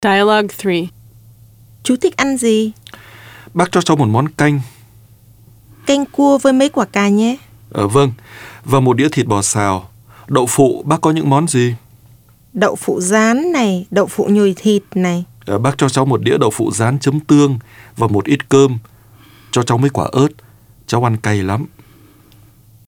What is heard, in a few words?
Dialog